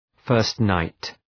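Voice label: "first night", English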